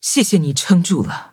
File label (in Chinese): defeat, zh-hant; cookie run